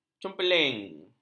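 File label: "cemperling", Malay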